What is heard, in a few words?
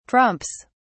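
"trumps", British English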